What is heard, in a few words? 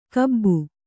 "ಖೂಬು", Kannada